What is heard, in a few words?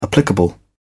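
"applicable", British English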